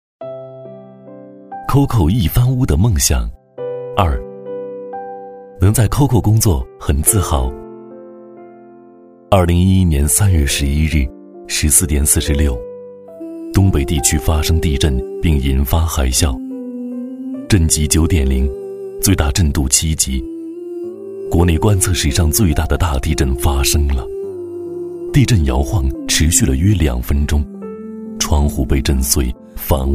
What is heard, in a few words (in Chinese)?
广告 叙述; 壹番屋